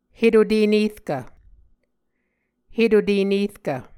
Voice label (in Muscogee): "hetute nerkv", Muscogee